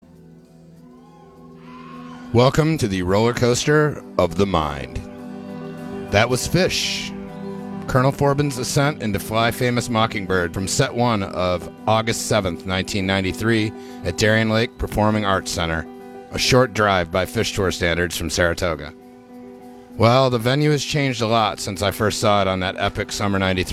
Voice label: radio banter; SPAC

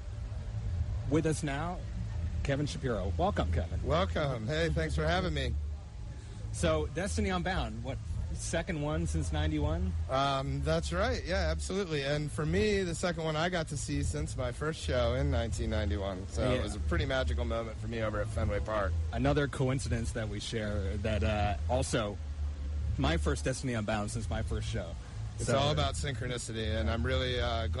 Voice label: radio banter; Bonnaroo

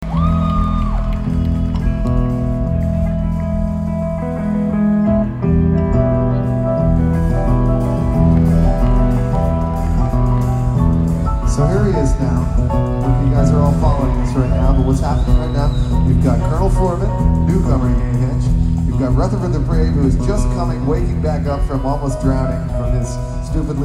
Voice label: narration

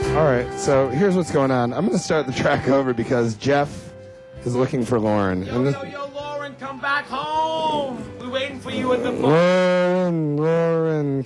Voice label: radio banter

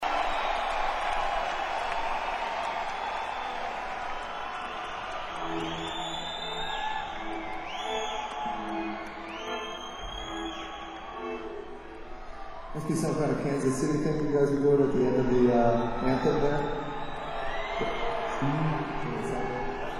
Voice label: banter

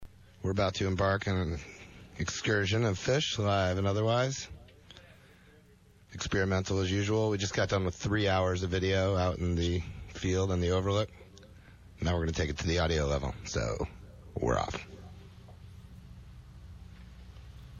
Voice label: radio banter; Festival 8

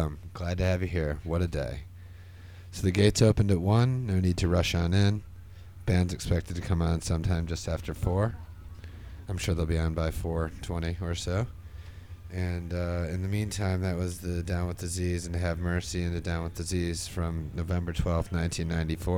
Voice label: radio banter; Lemonwheel

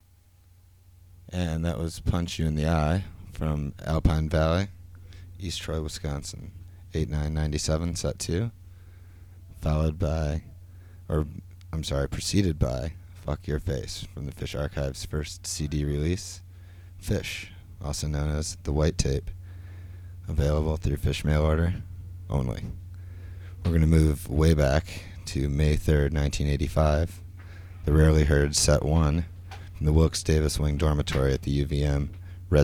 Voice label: radio banter; Lemonwheel

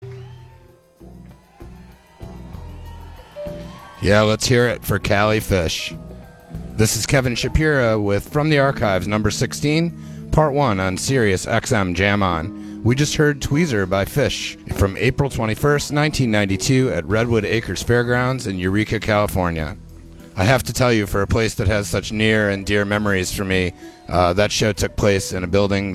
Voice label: radio banter; SPAC

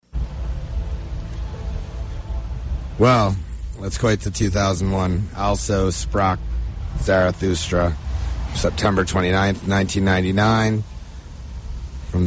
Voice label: radio banter; Bonnaroo

